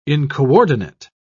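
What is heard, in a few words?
"incoordinate", English, British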